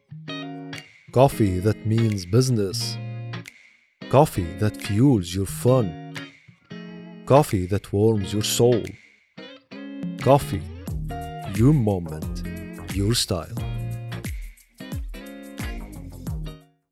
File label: coffee your moment your style with music